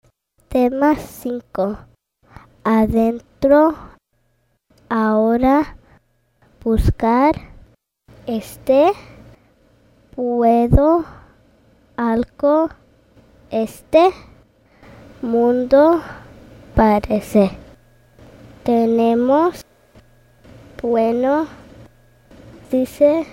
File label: High Frequency Spanish